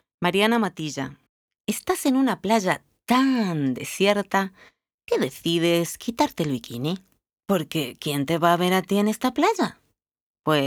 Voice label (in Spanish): Demo Publicidad